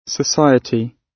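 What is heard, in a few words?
"society", British English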